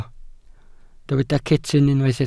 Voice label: "cetyn", Welsh

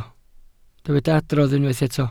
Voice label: "adrodd", Welsh

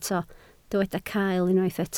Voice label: "cael", Welsh

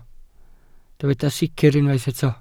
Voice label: "sicr", Welsh

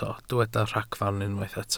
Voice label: "ragfarn", Welsh

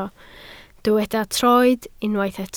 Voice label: "troed", Welsh

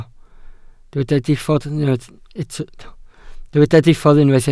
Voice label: "diffodd", Welsh

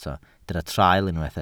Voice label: "traul", Welsh

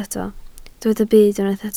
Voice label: "byd", Welsh

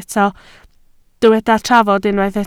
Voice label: "trafod", Welsh

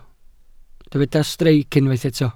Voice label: "streic", welsh

